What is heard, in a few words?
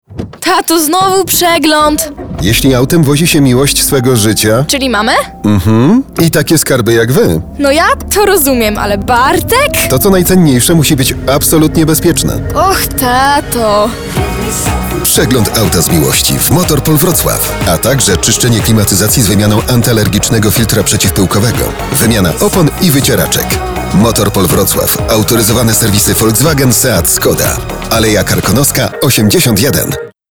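voice male advert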